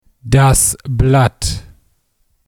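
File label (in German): "Blatt", German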